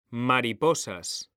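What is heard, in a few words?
"mariposas", Spanish, male; mekanta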